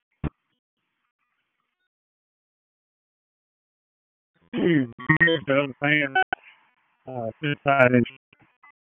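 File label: FIRE Operations, p25; FIRE OPS 2; wake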